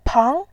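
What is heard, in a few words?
"pang", Mandarin, tone 2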